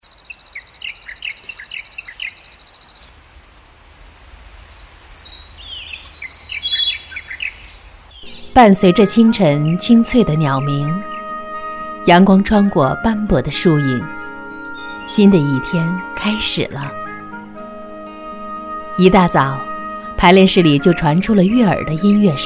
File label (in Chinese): 纪录片小样